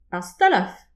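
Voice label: "ar stalaf", Breton; petit precis de prononciation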